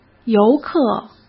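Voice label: "游客", Mandarin Chinese; chinese flashcards; hsk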